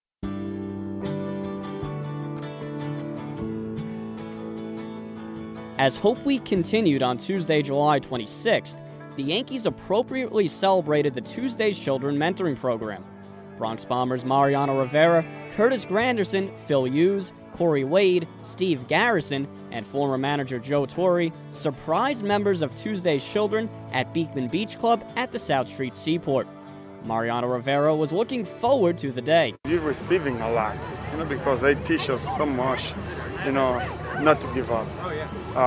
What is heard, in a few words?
voiceover